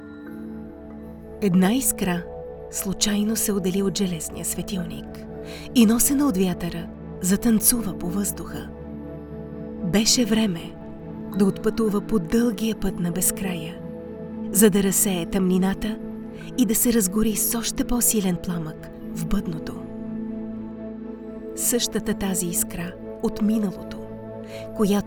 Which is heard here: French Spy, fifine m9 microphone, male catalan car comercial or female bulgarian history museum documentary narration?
female bulgarian history museum documentary narration